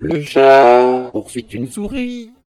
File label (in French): chat source depitched stretched; diemo